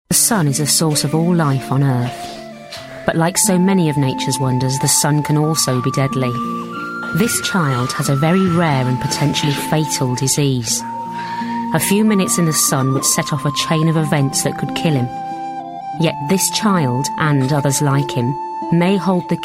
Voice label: Narration